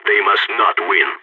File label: pep talk, agents, balkan